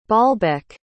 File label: "baalbek", pronounce